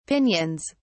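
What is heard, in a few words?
"pinions", British English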